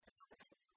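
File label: "радысла", Komi, female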